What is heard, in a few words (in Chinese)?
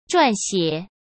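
"撰写" Mandarin Chinese; hsk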